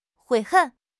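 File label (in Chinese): "悔恨", Chinese; HSK6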